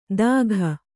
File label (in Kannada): "ದಾಘ", kannada